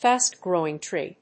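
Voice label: "fast-growing tree", English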